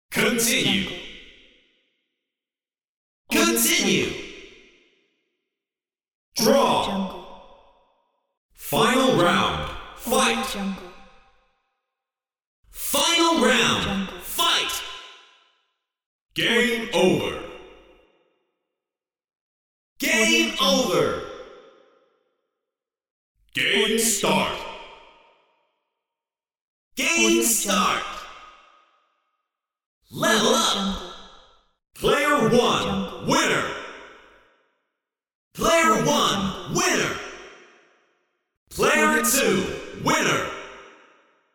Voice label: arcade voice